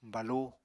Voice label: "balu", Apatani

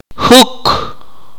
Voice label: "scratch", Akateko

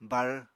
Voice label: "bar", Apatani

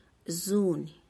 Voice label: "zuuny", tlacochahuaya

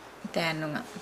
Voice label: Nukuoro; grave

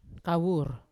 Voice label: "kawur" dull blunt, Yawa

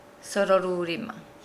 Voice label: Nukuoro; wash hands

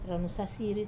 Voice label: "ranu sasiri" traditional song, Wamesa

